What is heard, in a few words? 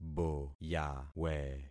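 "boyawe", concatenated syllables; Affixing experiment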